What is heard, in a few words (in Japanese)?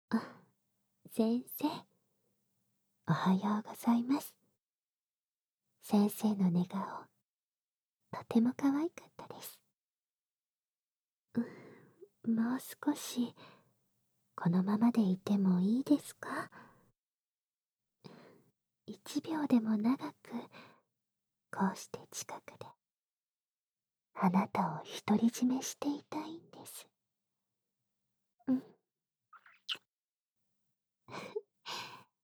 目覚ましボイス（少しえっち版）; リッカ